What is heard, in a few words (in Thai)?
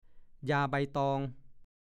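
"ยาใบตอง" ภาษาโคราช; บุหรี่ ยาสูบที่ใช้ใบตองมวน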